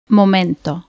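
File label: "momento", Spanish, cuba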